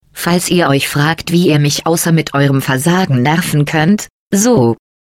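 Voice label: taunt robot arm ripple, German; GLaDOS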